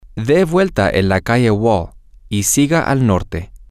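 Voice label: turn on to Wall Street and continue to the north, Spanish, male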